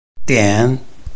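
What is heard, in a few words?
"dian", Chinese, tone 3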